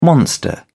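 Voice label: "monster", British English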